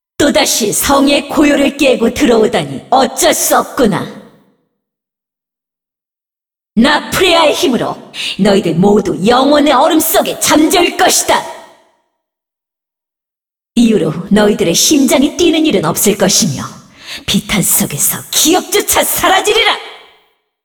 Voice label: cinematic voice; lineage2

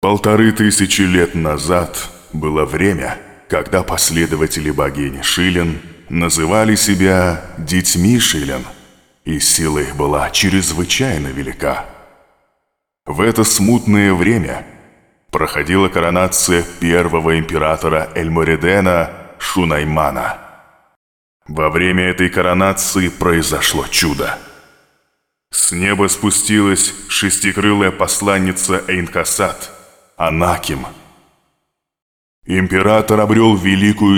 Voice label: cinematic voice, ru; lineage2

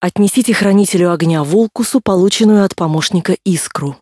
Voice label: tutorial voice, ru; lineage2

